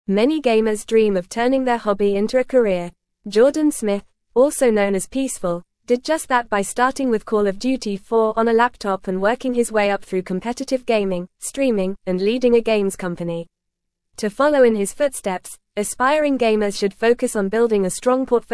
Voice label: English Newsroom Upper Intermediate FAST Reading; Turning Gaming Passion into Career Success Key Strategies